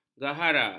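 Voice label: "gahara", Malay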